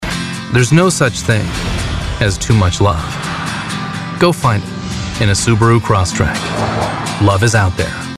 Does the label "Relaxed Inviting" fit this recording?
Yes